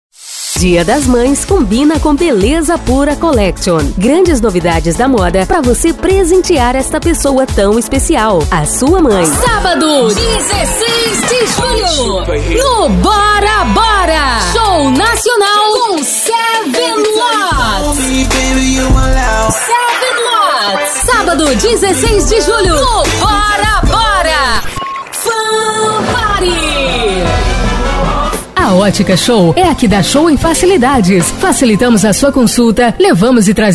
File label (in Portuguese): locutora